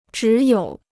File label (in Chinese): "只有", Chinese